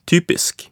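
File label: "typisk", Norwegian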